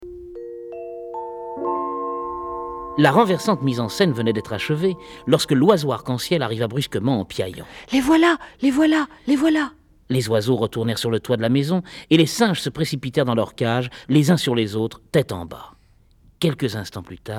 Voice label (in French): Les corbeaux attaquent, livres audio; Dahl, Roald; Deux gredins